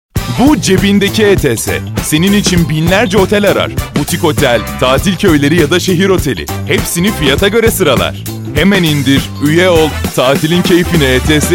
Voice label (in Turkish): reklam; ets